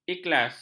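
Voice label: "ikhlas", Malay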